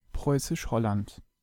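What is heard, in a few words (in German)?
"Preußisch Holland" German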